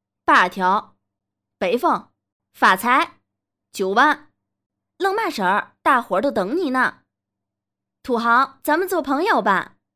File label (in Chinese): 天津话棋牌